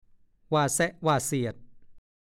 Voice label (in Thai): "ว่าแส๊ะว่าเสียด", ภาษาโคราช; พูดกระแนะกระแหน